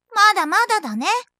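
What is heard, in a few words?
"madamadane", Japanese; announce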